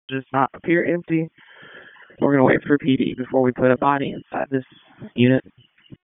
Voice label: FIRE OPS, p25; wake